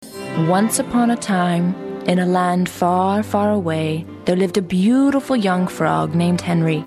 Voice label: story

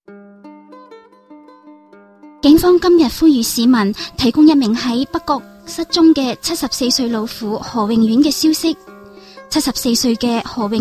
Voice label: Cantonese

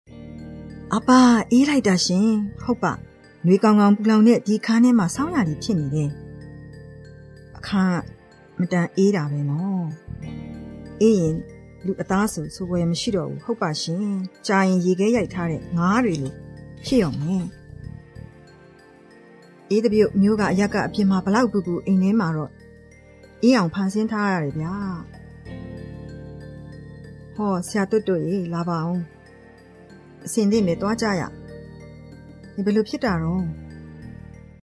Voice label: story

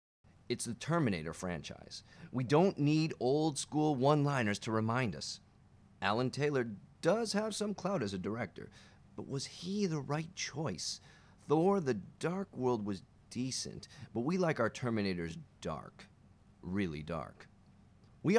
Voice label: narration

